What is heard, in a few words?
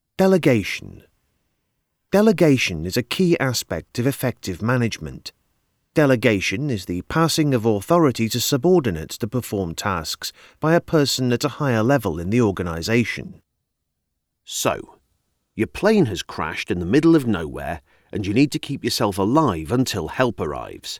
informative